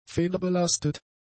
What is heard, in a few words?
"federbelastet", german; adjectives